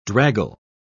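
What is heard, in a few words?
"draggle", British English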